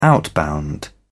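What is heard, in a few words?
"outbound", British English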